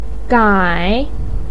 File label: "gai3", Chinese pinyin voice